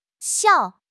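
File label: "xiao4", Chinese; HSK; HSK2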